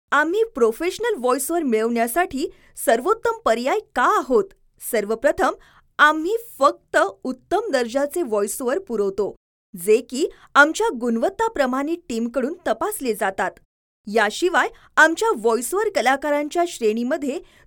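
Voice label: Marathi female studio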